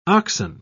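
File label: "oxen", British English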